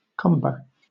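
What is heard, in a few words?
"cumber", British English; pronunciations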